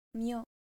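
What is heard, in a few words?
"myo", Japanese; hiragana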